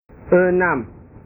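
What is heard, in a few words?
"enam", Indonesian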